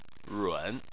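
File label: "run" tone 3, Chinese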